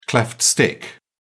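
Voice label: "cleft stick", English, uk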